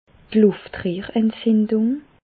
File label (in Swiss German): "luftrehrentzindung", Bas Rhin; laryngite; medecine